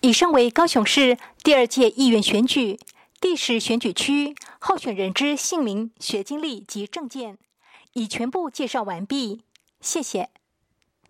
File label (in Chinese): 國語, 有聲選舉公報; 高雄市; 高雄市市長議員有聲選舉公報; 第十選區